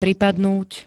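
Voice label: "pripadnúť", Slovak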